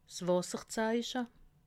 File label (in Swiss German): "wasserzeiche", alsace